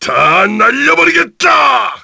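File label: Vox Skill, Korean; Gau; kingsraid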